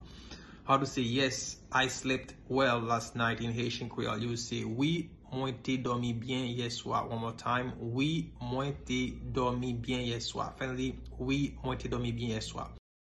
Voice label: Wi mwen te domi byen ye swa pronunciation, Haitian Creole